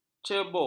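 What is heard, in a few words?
"cebok", Malay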